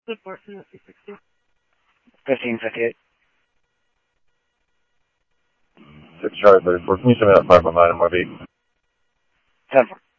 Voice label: Mesa Simulcast, MESA PD, scanner audio